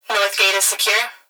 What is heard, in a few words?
Emergency Human Female Voice Plant Security Dispatch North Gate Is Secure; emergency effects